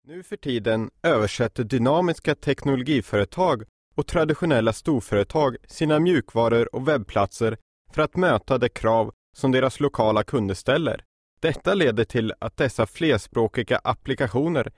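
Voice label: Swedish, voice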